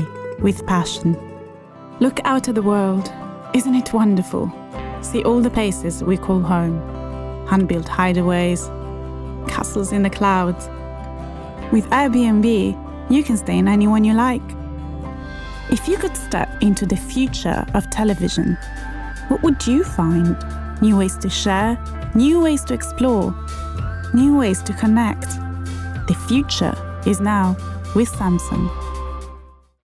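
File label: Italian, English accent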